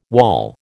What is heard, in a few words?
"wawl", American